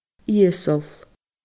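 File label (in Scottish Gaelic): "ìosal", Scottish Gaelic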